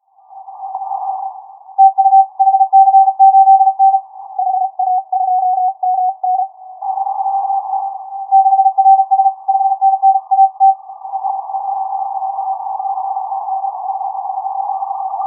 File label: 7MHz; QSO